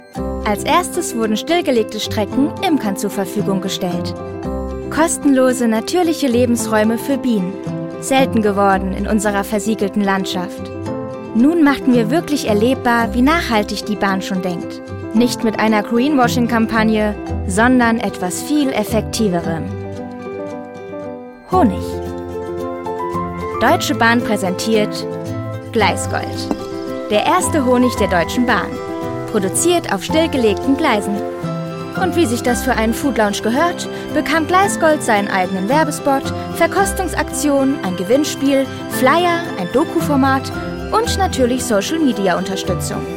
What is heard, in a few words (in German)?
Werbung; Deutsche Bahn